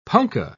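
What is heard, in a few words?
"punka", British English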